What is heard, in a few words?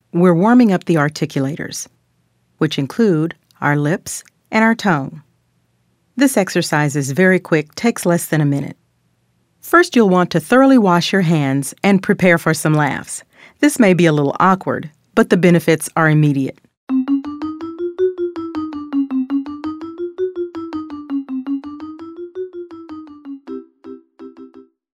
Articulator Warm Up